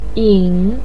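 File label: "yin", Mandarin pinyin, third tone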